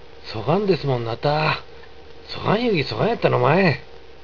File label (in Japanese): そがんですもんなたぁ。／そがん言うぎそがんやったのまい。, 有田弁; そうですよねぇ。／そういえばそうだったよなぁ。; 〜なたぁ，〜のまい; （接尾語的な用法で、相手に同意を得る際使用）